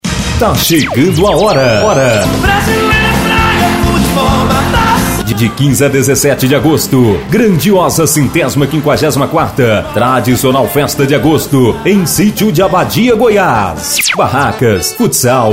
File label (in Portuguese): chamada voz; Festa agosto 2014